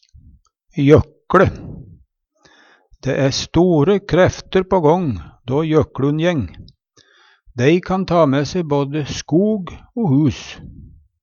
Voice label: jøkLe, Numedalsmål

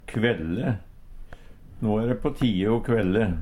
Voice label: kvelle, Numedalsmål; No e de på tie o kvelle

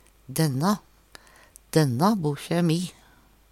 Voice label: denna, Numedalsmål; de og da = denna

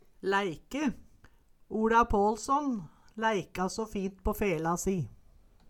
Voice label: laeike, Numedalsmål

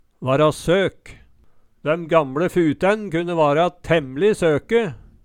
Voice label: "vara sok", Numedalsmål

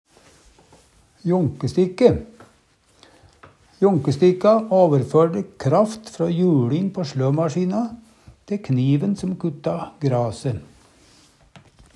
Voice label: jånkestikke, Numedalsmål

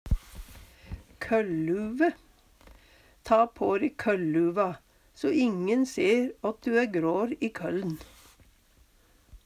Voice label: koll luve, Numedalsmål; Substantiv hokjønn

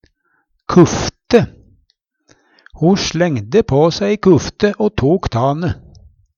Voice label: kufte, Numedalsmål

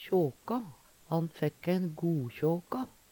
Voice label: "kjåkå", Numedalsmål

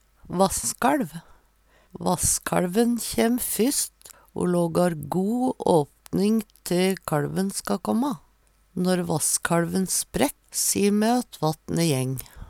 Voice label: vasskaLv, Numedalsmål

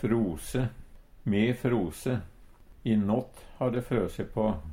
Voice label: frose, Numedalsmål; Verb; Me frose. I nått har det frøse på.

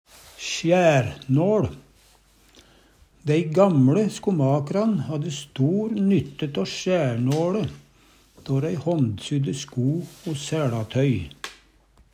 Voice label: "sjærnåL", Numedalsmål; Eksempel på bruk Dei gamLe skomak'ran hadde stor nytte tå sjærnåLe då rei håndsydde sko o sæLatøy.

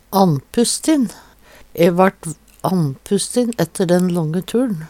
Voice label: "annpusstin", Numedalsmål; Adjektiv

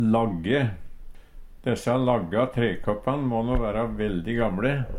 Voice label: "lagge", Numedalsmål; Desse lagga trekoppan må no vera veldig gamle.

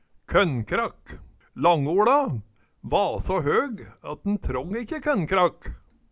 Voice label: konnkrakk, Numedalsmål

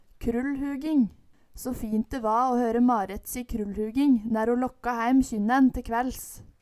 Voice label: "krullhuging", Numedalsmål

